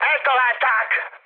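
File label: Hungarian aircraft destroyed mood high, speech; War Thunder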